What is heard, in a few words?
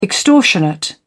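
"extortionate", British English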